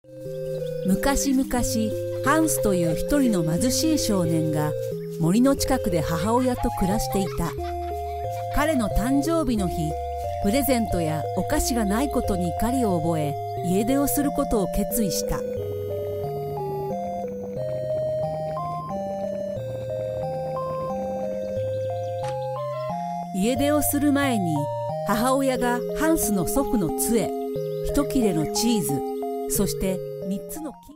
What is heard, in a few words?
japanese video games